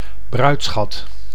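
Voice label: "bruidschat", Dutch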